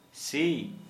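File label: Santali male head lice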